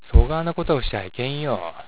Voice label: "いけん", 広島の方言; いけない。良くない。; そがーな事をしちゃーいけんよー。（そんな事をしてはいけませんよ。）; 「良い、いける」の打ち消し。

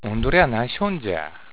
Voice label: "おんどりゃー", 広島の方言; おんどりゃー、何しょーんじゃ？（おまえは何をしているんだ？）; かなり軽蔑した感じで相手を呼ぶときに使う。

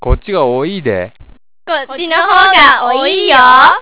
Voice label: "おいー", 広島の方言; こっちがおおいいで！ こっちの方がおいーよ！（こちらの方が多い！）

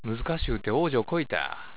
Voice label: "おうじょう", 広島の方言; 難儀する。まいる。苦しみなやむこと。; 難しゅーて、おうじょうこいた。（難しくて、難儀しました。）; おうじょうこく、おうじょうする; ほねをおる。; ひどく疲れた様子。

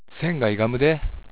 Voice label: "いがむ", 広島の方言; ゆがむ。形などが正しくなくなる。ひずむ。; 物差しを使わんと、線がいがむで。; 心や言動などが正常でなくなる。

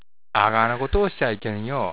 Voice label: "あがーな", 広島の方言; あんな。あのような。ああいう。; あのように。あんな風に。; あがーな事をしちゃーいけんよ。（あんなことをしてはいけないよ。）

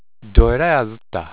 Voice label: "あずる", 広島の方言; 手間取る。てこずる。; どえらいあずった。（非常にてこずった。）; 寝相が悪く動きまわる。

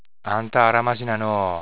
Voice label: "あらまし", 広島の方言; 雑。おおまかなこと。; あんたー、あらましなのー。（あなたは、雑ですね。）

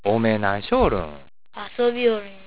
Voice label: "おめー", 広島の方言; あなた。おまえ。; おめー、何しょーるん？（あなたは何をしているんですか？）; 少し軽蔑した感じで相手を呼ぶときに使う。

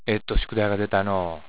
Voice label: "えっと", 広島の方言; たくさん。多く。; えっと宿題が出たのー。（たくさん宿題が出たね。）

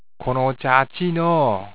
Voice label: "あちー", 広島の方言; 今日は朝からあちーのー。（今日は朝から暑いですね。）; このお茶はあちーのー。（このお茶は熱いですね。）

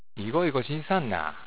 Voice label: "いごいご", 広島の方言; ごそごそ。; 落ち着かんけー、いごいごしんさんな！（落ち着かないのでごそごそしないで！）; 落ち着きなく動き回る様子。

